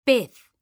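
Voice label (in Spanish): "PEZ", Spanish; mekanta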